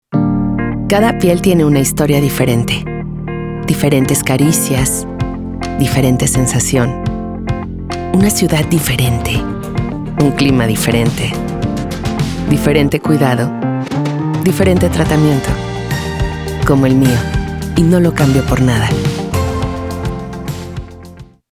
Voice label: Skincare Sensual Casual Soft

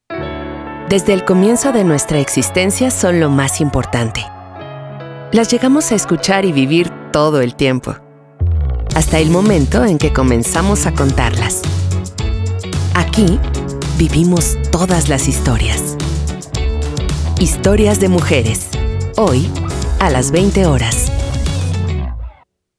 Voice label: TV Promo Manifiesto Mujeres

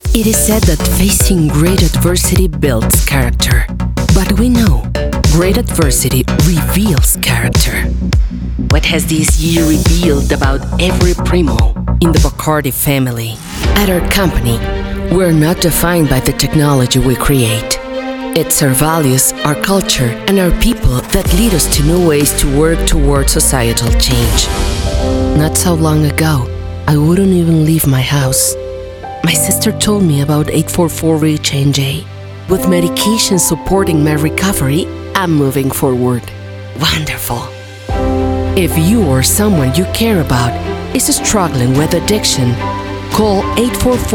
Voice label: Ingles con acento

